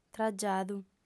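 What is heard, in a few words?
"tragiadu", Sardinian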